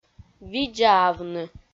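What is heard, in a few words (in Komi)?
"видзаавны", Komi, female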